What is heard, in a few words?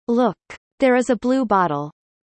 fast